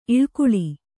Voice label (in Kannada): "ಇಲಿಗುಲಿ", Kannada